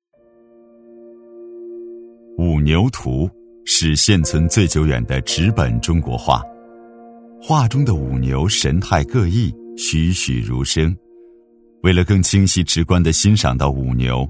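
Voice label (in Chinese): 男 纪录片【娓娓讲解】; 低沉磁性 大气浑厚; 五牛图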